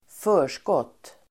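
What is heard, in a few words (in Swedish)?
"förskott", swedish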